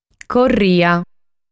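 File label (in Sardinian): "Corria", Sardinian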